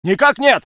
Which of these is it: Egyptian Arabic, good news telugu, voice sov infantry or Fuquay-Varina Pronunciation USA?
voice sov infantry